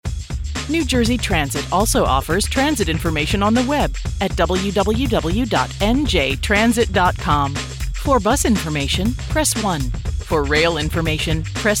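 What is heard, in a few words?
Narration, Voiceover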